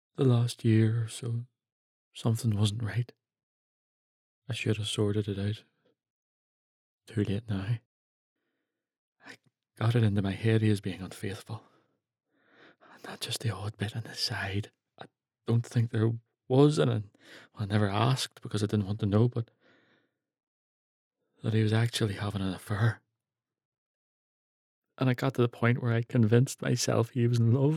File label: Radio Drama